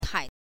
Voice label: "tai", chinese